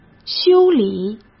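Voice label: "修理", Mandarin Chinese; hsk; chinese flashcards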